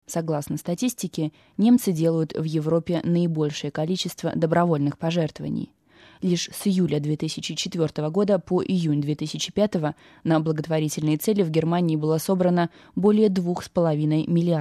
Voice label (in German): stimmprobe rus